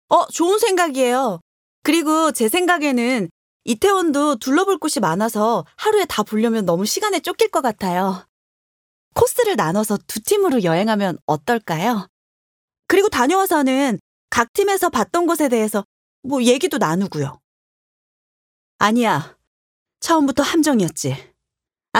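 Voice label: Korean female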